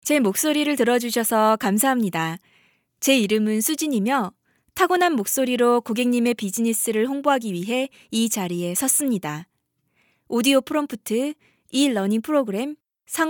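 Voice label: korean female narration; voice demos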